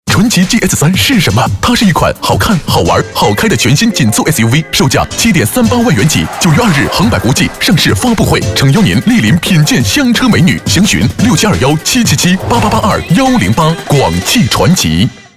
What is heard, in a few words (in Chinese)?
男 广告; 传祺GS3